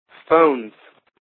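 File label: "phones", American English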